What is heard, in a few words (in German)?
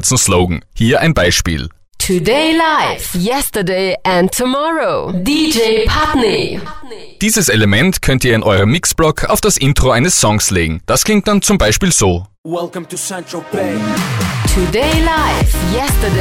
Jingles DJ Jingle Drop In